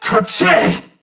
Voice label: Surprised Crewmen; Warframe; CorpusCrewmen; CrewmanD